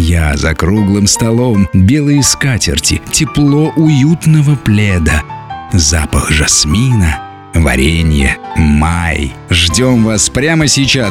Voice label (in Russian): Выставка Чай май самовар объявление в магазине